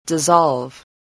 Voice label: "dissolve", spelling

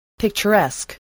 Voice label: "picturesque", voice, English

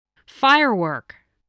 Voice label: "firework", English

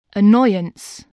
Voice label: "annoyance", spelling

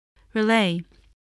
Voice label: "relay", voice spelling

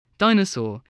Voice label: "dinosaur", english spelling

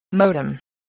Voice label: "modem", English

